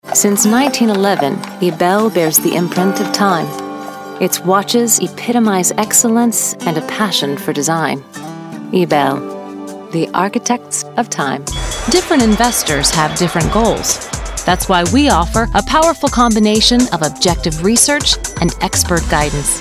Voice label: US commercials